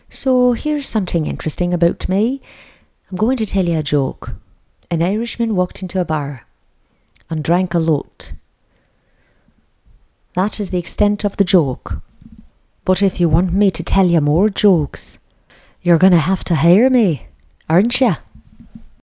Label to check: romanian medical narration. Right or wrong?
Wrong